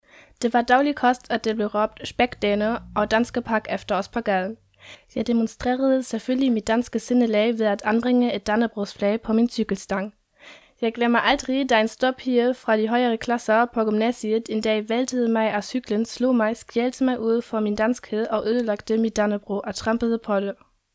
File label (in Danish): anneliese 12 aar